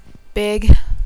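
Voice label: "big", Serbian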